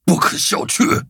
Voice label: defeat, zh-hant; cookie run